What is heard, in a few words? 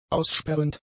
"aussperrend", German; adjectives